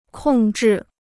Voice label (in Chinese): "控制", Chinese